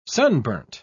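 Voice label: "sunburnt", English, uk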